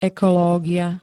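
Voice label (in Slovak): "ekológia", Slovak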